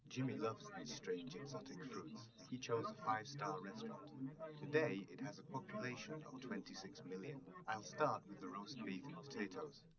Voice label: male liverec; SiSEC10; underdetermined

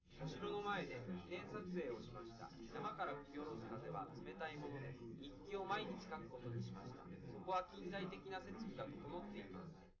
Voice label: male live rec 250ms 1m; underdetermined; SiSEC11

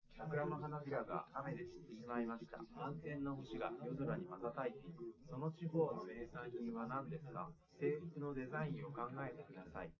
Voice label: male liverec; SiSEC11; underdetermined

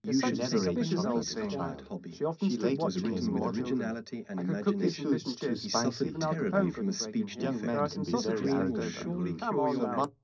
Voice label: male mix; SiSEC10; underdetermined

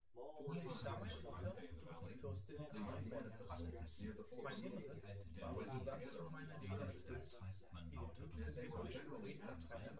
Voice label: male synth conv 1m sim, male; SASSEC07

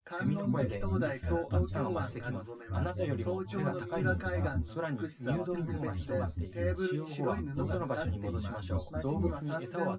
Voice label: test male synthconv 130ms 5cm src; SiSEC08; SiSEC underdetermined

